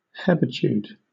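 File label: "hebetude", British English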